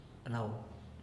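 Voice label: "lou", Teotitlán del Valle Zapotec; tu cara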